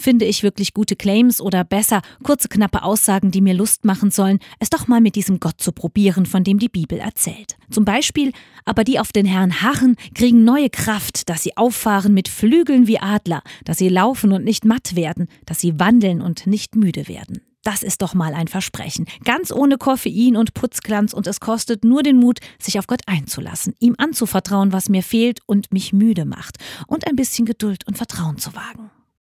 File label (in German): achtung werbung